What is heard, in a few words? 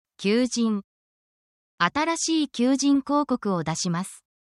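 Japanese word, japanese phrases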